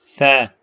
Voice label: short voyels arabic alphabet